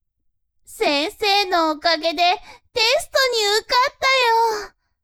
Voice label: woman